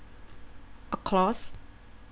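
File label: "across", Thai, female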